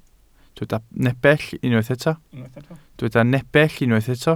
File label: "nepell", welsh